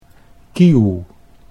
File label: "kieuw", Dutch